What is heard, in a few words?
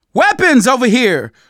spot weapons, survivor voice; manager; Left 4 Dead 2; left4dead2 dlc2